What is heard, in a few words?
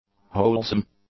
"wholesome", english; adjectives